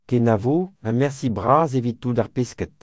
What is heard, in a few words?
so long, breton